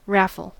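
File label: "raffle", American English; pronunciations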